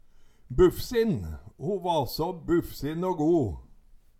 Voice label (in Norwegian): "bufsin", Numedalsmål